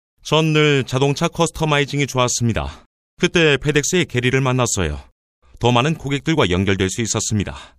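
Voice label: korean male commercial; voice demos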